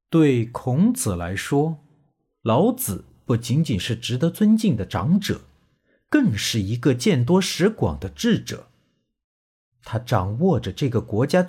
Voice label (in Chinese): 纪录片旁白